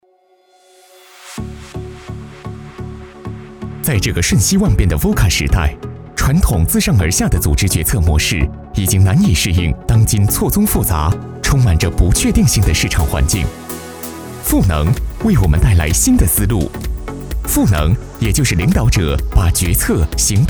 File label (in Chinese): 男 专题 年轻时尚科技感